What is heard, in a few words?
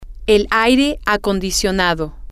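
the air conditioning, spanish, female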